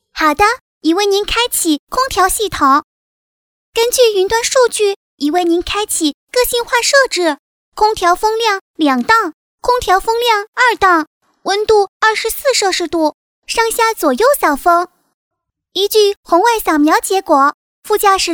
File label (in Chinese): 女, 女童 童声